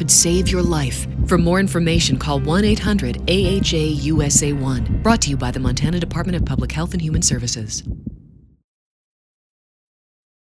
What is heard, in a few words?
Heart Attack Women RADIO ad; publichealth; Cardiovascular; CardiacInitiative